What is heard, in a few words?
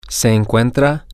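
Spanish, male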